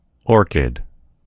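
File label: "orchid", American English; gcompris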